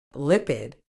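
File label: "lipid", British English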